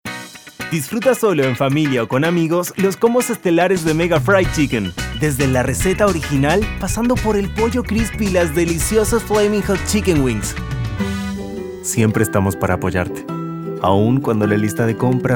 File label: Spanish Commercial Latin American Neutral